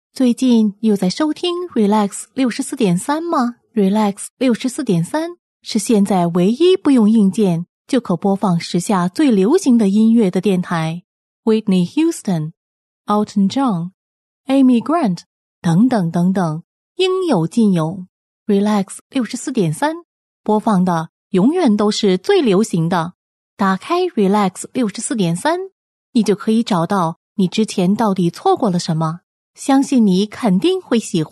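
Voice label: Chinese, female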